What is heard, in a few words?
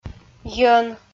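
"йӧн", Komi, female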